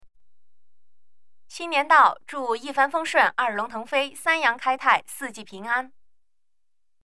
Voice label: 祝贺词样音

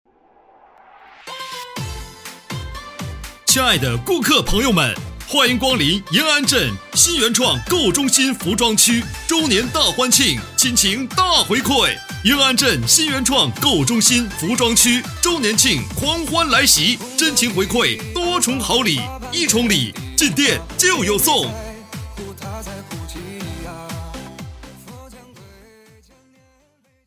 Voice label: 促销

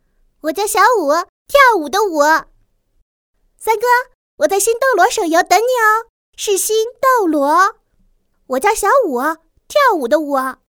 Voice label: 模仿; 斗罗大陆小舞模仿

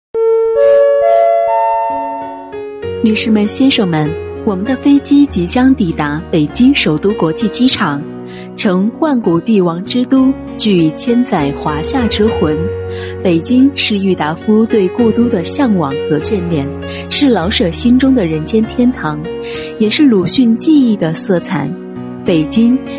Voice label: 机场播报

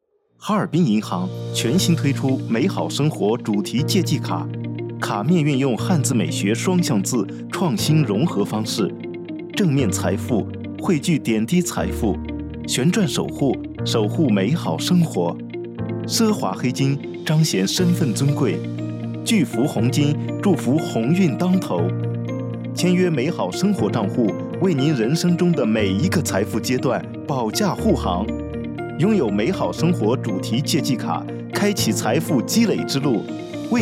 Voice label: 阳光 青春 广告

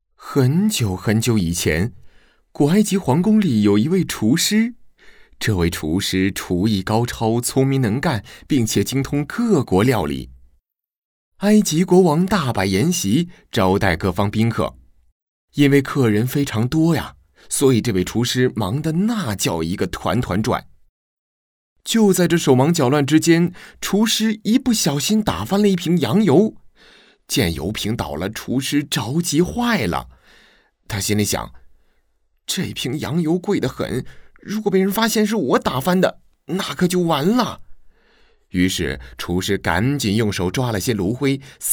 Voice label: 儿童故事; 发芽的土豆

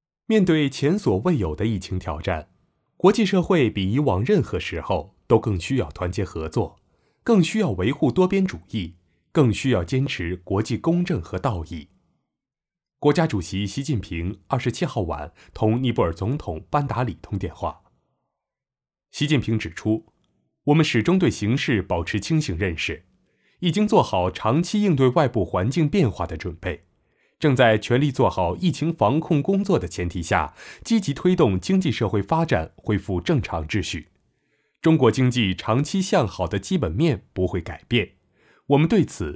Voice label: 样音新闻

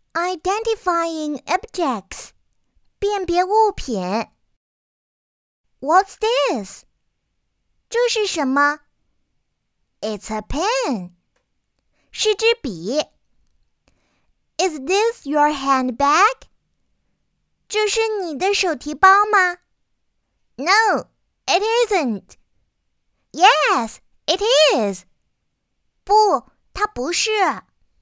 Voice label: 中英女童

